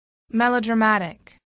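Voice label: "melodramatic", English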